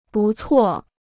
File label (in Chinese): "不错", Chinese